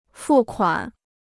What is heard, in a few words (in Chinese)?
"付款", Chinese